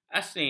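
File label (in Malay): "asing", Malay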